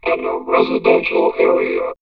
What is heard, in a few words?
Vocoder Area, robot vox; X-Static Goldmine